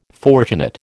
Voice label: "fortunate", American